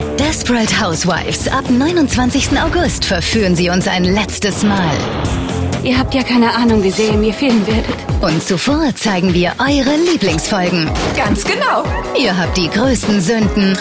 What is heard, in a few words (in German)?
Sprecherin, Trailer; Desperate Houswives; Pro7; Die Letzten Folgen